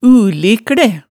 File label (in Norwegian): "ulikle", hemsedalsmaalet tale